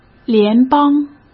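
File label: "联邦", Mandarin Chinese; chinese-flashcards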